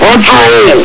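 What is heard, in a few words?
"patrol!", male; hl2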